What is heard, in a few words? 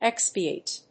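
"expiate", English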